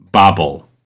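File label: "bobble", English word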